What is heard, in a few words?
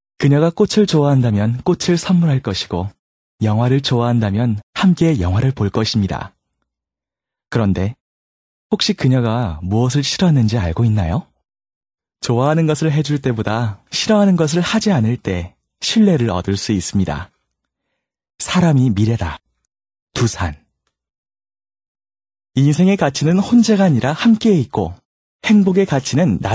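Korean male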